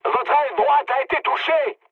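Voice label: right wing, mood medium, French; War Thunder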